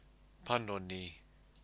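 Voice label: "panoni", Māori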